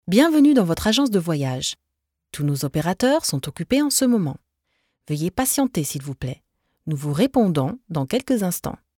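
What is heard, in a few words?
French, female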